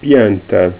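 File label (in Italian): "pianta", bronese dialect; Oltrepo; Albero: Piäntä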